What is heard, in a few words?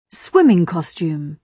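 "swimming costume", British English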